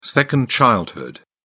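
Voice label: "second childhood", British English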